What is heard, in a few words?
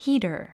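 "heater", English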